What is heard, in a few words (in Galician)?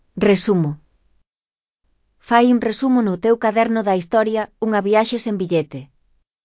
voz sintética; Elaboración propia (proxecto cREAgal) con apoio de IA, voz sintética xerada co modelo Celtia.; Unha viaxe sen billete; Resumo